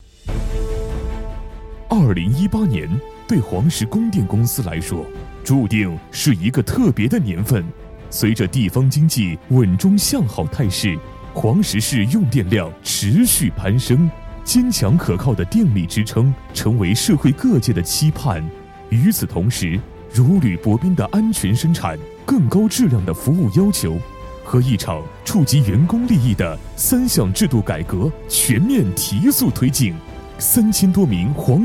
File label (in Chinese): 男 政企宣传 稳重 浑厚 激情; 黄石电网